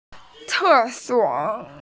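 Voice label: "ce4 suo3", Mandarin Chinese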